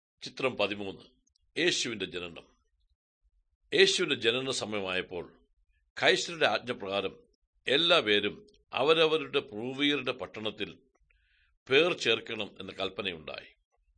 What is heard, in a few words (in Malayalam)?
good news malayalam, drama; world bible translation center nt drama